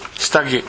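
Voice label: "sitage", Minamiyamagata dialect Japanese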